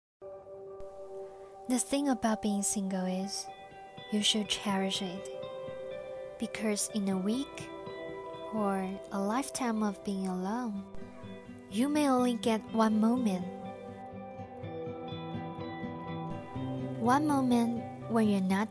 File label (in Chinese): 英文; 珍惜时光英文小样